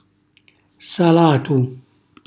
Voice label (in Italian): "salatu", Calabrese; cucina calabrese